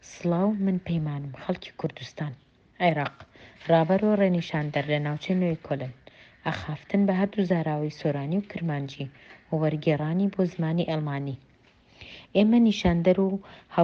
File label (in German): nordkurdisch